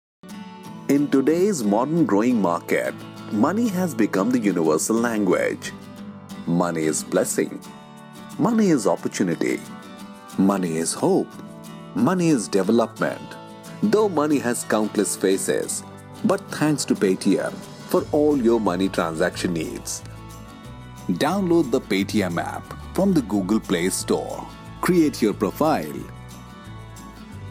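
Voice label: corporate english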